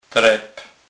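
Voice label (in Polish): "trep", Kurpie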